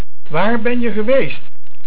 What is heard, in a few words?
Waar, Dutch